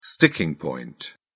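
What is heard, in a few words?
"sticking point", British English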